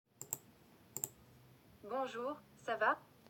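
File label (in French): "Bonjour ça va", French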